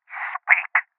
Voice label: Voices Interrogate Male